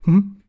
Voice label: Asking Hm Afraid Of Something